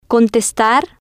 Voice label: "contestar", Spanish

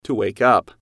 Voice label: "to Wake Up", English

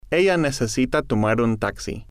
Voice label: ella Necesita Tomar Un Taxi, Spanish

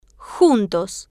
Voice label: "juntos", spanish

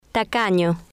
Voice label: "tacaño", Spanish

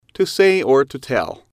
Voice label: to say tell, English

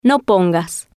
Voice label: "no pongas", Spanish

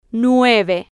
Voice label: "nueve", spanish

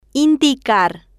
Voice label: "indicar", Spanish

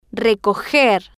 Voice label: "recoger", spanish

